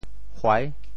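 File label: "huai6", Teochew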